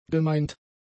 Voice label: "gemeint", German; adjectives

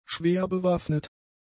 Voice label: "schwerbewaffnet", German; adjectives

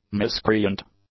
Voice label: "miscreant", English; nouns

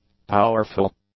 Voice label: "powerful", english; adjectives

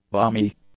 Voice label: "balmy", English; adjectives

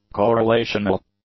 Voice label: "correlational", English; adjectives

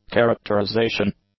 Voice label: "characterization", English; nouns

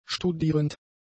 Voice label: "studierend", German; adjectives

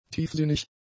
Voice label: "tiefsinnig", German; adjectives

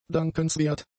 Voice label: "dankenswert", German; adjectives

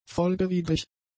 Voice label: "folgewidrig", German; adjectives

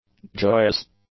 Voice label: "joyous", english; adjectives

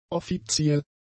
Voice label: "offiziell", German; adjectives

